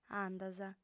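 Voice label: "andas", lingua sarda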